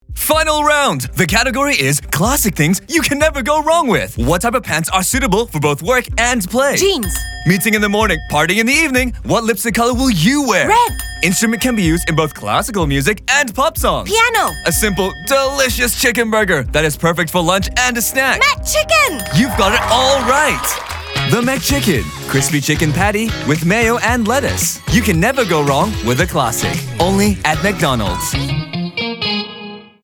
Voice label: ENG; McD McChicken

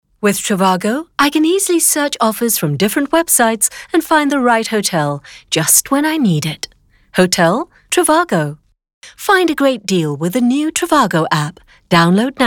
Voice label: ENG character; Trivago

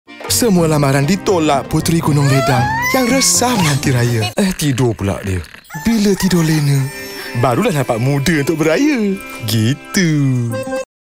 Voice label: Malay, Commercial Dramatic, Flamboyant, Comedic; BM : Coway Commercial Dramatic, Flamboyant, Comedic

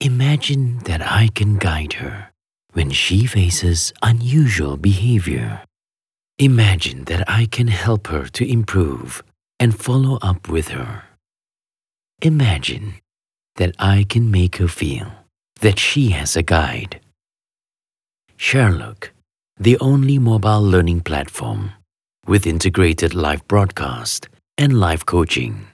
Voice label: Eng Emotive Warm

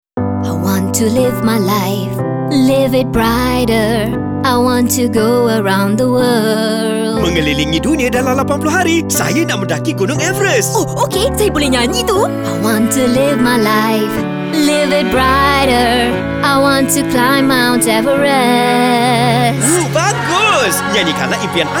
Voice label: Malay; SUNLIFE MALAYSIA KARAOKE

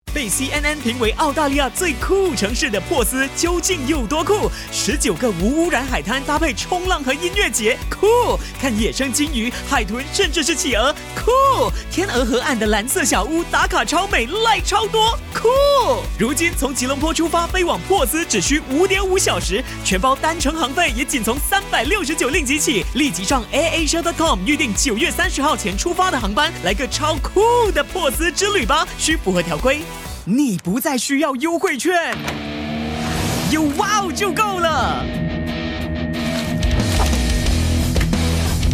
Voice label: Mandarin Announcer Energetic; OTT

